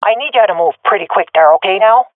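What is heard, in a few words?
request move, swat female; vo; Counter Strike Model Pack; CS:GO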